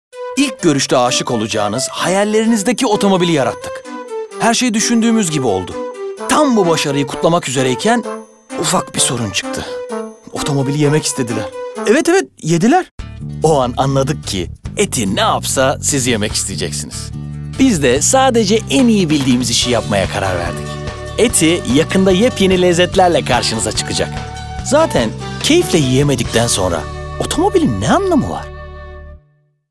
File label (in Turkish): neseli esprili, seslendirme